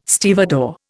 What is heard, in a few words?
"stevedore", Indian